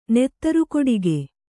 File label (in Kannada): "ನೆತ್ತರುಕೊಡೆಗೆ", Kannada